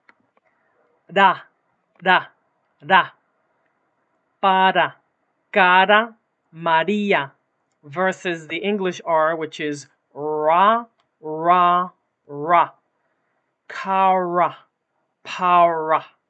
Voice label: Spanish R